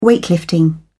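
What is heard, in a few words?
"weightlifting", British English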